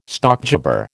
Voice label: "stockjobber", American